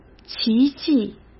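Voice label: "奇迹", Mandarin Chinese; chinese flashcards; hsk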